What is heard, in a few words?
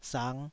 "sang5", taiwanese